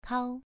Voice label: "kao2", pronunciation

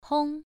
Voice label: "hong1", pronunciation

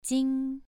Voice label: "jing2", pronunciation

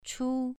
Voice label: "chu2", pronunciation

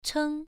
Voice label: "cheng1", pronunciation

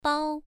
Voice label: "bao1", pronunciation

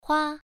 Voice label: "hua1", pronunciation

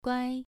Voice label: "guai2", pronunciation

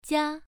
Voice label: "jia1", pronunciation

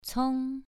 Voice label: "cong2", pronunciation

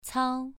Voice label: "cao2", pronunciation

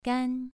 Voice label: "gan2", pronunciation

